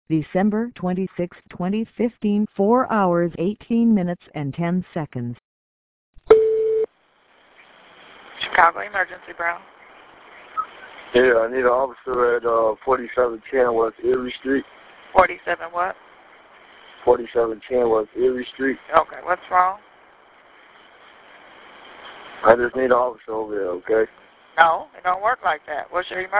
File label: 911 call